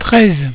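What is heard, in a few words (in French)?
"treize", French; cat numeration doigts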